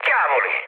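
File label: Italian trick mood low, speech; War Thunder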